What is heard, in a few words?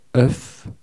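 "oeuf", French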